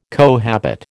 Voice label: "cohabit", American

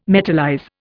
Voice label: "metallize", Indian

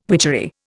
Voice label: "witchery", Indian

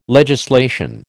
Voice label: "legislation", American

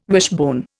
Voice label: "wishbone", Indian

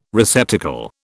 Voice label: "receptacle", British

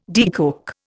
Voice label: "decoke", Indian

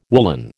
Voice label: "woollen", American

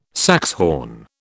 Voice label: "saxhorn", British

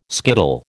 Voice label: "skittle", American

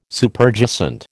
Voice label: "Superjacent", American

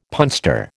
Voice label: "punster", American

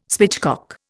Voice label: "spitchcock", Indian

